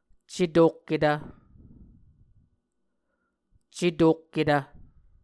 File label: "cetokketv", muscogee; Mvskoke Words with Repeated Consonants; Onvkv Ohhvyayices